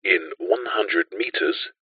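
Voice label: technology sat nav voice male british says in one hundred metres; uk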